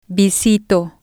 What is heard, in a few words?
"visito", Spanish